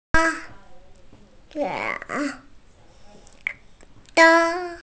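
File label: "zang1", Mandarin Chinese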